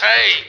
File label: "hey", Voice